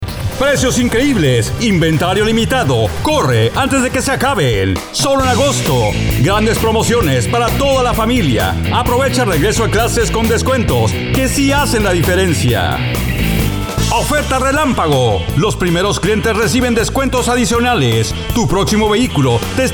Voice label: Spanish